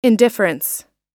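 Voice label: "indifference", American English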